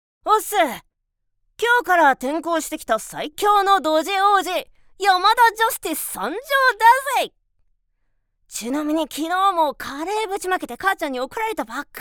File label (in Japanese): ガハハ系の少年 セリフ; VOICE SAMPLE